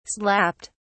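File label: "slapped", British English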